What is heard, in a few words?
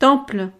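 "temple", French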